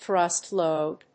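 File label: "thrust load", English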